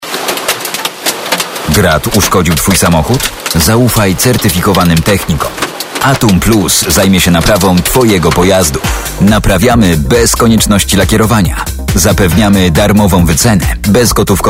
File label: spot; ATUMPLUS